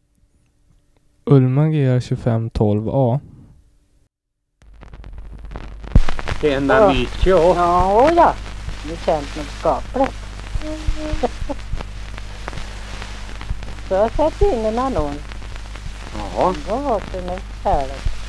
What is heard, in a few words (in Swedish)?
Lit, dialekter; Svenska sagor; Folkminnen